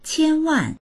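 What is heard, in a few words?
"qian1wan4", Mandarin Chinese; 千万; ぜひとも、くれぐれも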